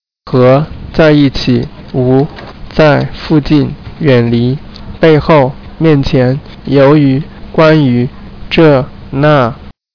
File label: chinese; words